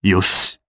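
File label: Vox Happy, Japanese; Shakmeh; kingsraid audio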